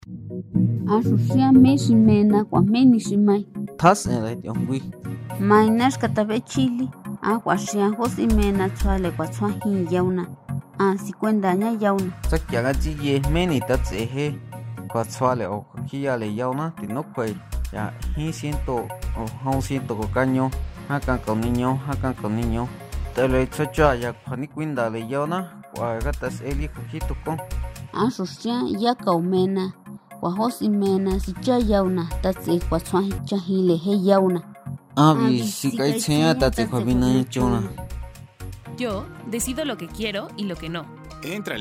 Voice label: Mazateco; Yo decido en Lenguas